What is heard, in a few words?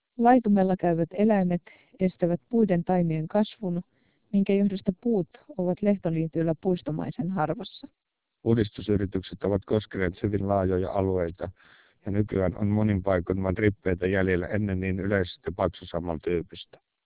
Finnish; TWELP DMR; vocoder